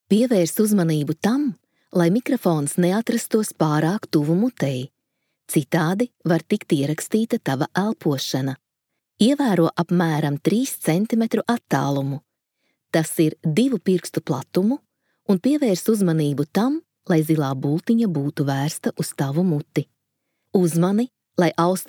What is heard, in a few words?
female latvian voice over narrative